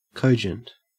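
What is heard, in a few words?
"cogent", Australian English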